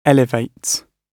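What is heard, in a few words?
"elevates", British English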